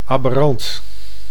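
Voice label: "aberant", Dutch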